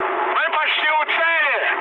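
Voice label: Russian near waypoint mood high, speech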